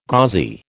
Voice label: "gauzy", American